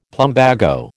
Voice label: "plumbago", American

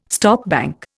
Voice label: "stopbank", Indian

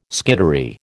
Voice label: "skittery", American

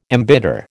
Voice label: "embitter", American

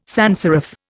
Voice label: "sanserif", Indian

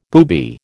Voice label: "booby", American

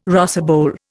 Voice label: "rosebowl", Indian

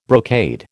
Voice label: "brocade", American

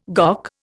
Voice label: "GOC", Indian

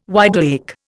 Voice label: "wideawake", Indian

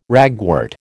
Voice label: "ragwort", American

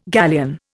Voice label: "galleon", Indian

